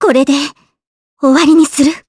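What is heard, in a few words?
Vox Skill, Japanese; Kirze; kings raid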